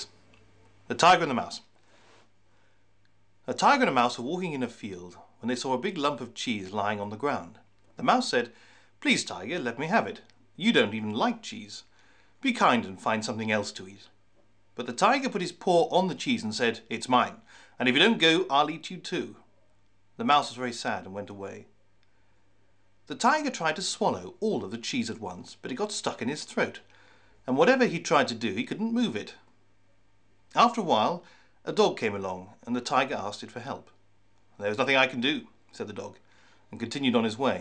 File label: English read; Mannheim Summer School